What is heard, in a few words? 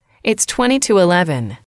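"it's twenty to eleven", English, female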